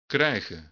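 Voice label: "krijgen", Dutch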